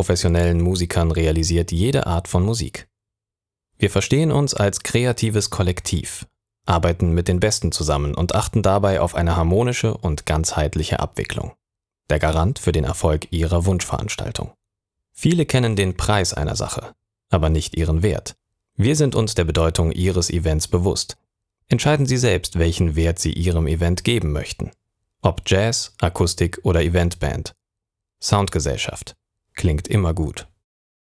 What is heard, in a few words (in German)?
Sprecherdemo Imagefilm